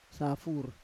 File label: "safur", Roon